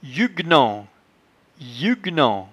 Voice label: "yuguenant", patois jura